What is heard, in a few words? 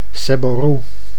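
"seborroe", Dutch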